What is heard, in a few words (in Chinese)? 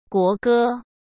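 "国歌", Mandarin Chinese; hsk; chinese flashcards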